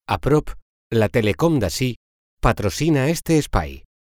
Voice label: catalan corporate videos